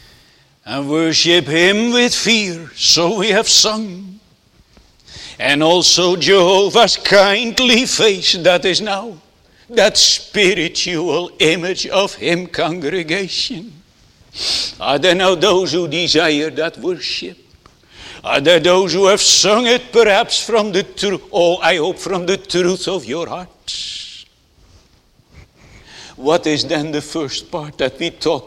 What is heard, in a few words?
Sermon Continued; Netherlands Reformed Congregation, St. Catharines, ON